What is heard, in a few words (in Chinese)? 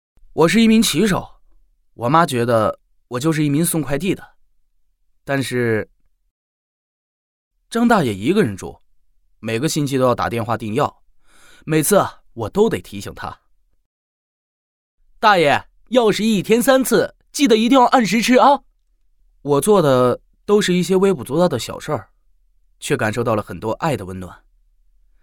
国语 男声 游戏角色 骑手 激情激昂 大气浑厚磁性